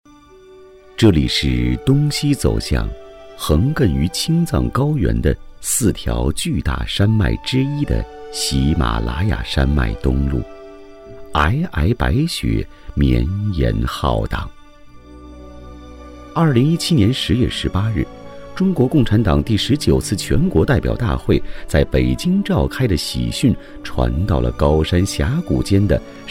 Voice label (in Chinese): 专题 政府 沉稳, 男; 沧桑巨变看西藏